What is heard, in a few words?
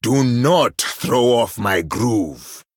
Male Troll, MIRROR GREETINGS; en